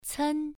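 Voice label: "cen" Mandarin, first tone, pronunciation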